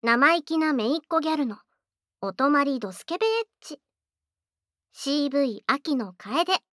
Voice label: タイトルコール

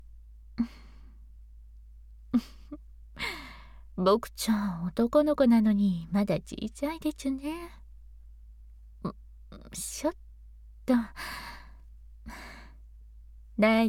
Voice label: サウンド, セクシーママ

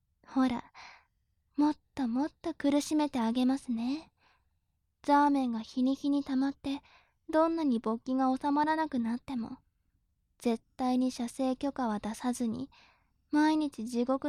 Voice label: 調教中音声

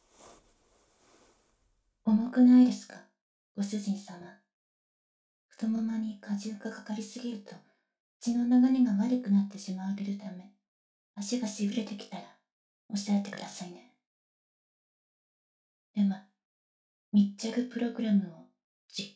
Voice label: ロボ 密着疑似対面座位（耳舐め・対面座位風お腹ズリ）, ロボット音声加工版

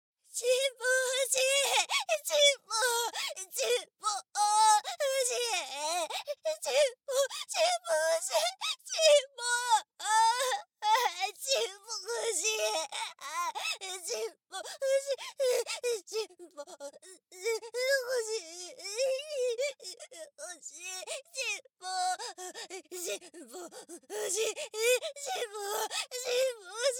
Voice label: お嬢様ちんぽボイス（左）苦しみ度 強

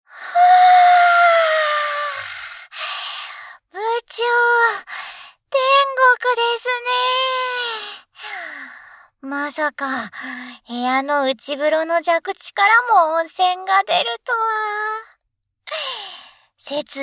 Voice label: エコーなし 声のみ

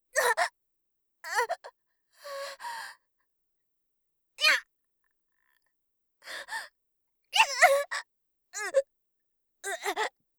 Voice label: 腹パン, ASMR; 効果音なし

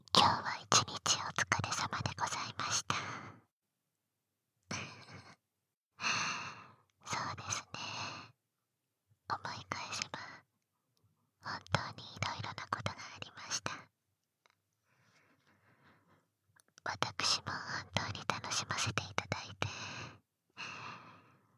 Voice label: 添い寝でおやすみなさい（バイノーラル収録 効果音なし）

